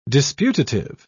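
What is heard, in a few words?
"disputative", British English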